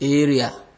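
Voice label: "area", Nigerian Pidgin, male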